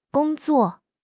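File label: "gong1 zuo4", Chinese; HSK1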